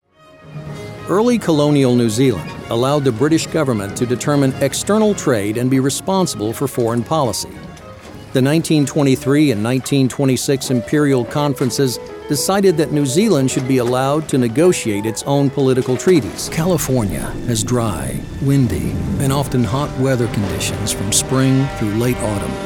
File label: Narration Video boosted gain